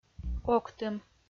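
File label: "октым", Komi, female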